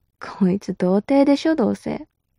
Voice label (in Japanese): mob 女子 台詞